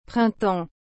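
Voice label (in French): "printemps", French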